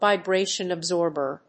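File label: "VIBRATION ABSORBER", English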